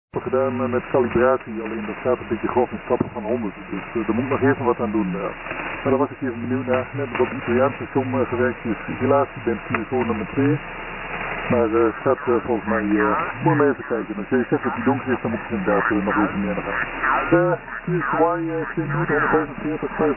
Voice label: qso, hamradio, ubitx